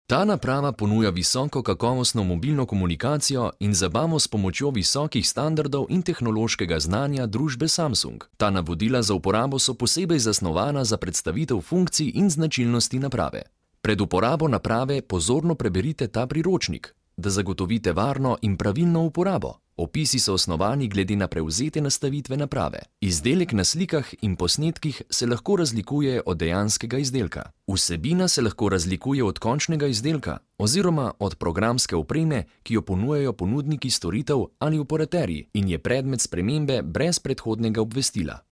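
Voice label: slovenian male narration; voice demos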